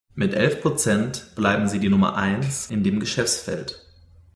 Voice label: deutsch listening